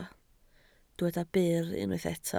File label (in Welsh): "byr" Welsh